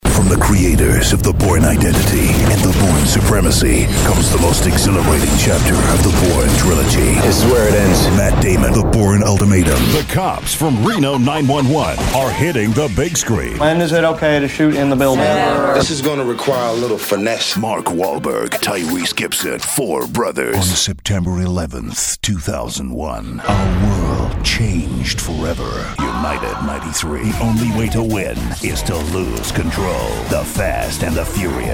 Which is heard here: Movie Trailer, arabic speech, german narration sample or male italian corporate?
Movie Trailer